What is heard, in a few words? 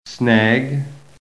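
"snag", American English; PHONETIC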